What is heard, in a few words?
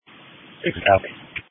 Police Dispatch